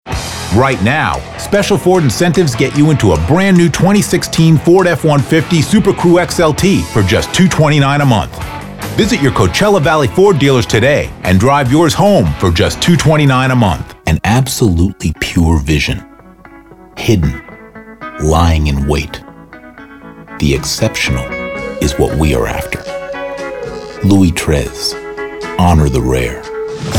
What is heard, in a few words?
Commercial